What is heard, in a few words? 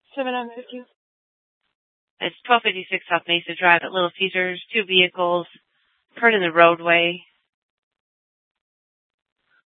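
police dispatch, scanner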